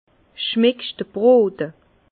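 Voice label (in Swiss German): "brote", Alsace Bas Rhin; vin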